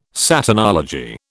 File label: "Satanology", British